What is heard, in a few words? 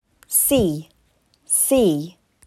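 "Sea", English word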